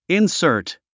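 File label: "insert", English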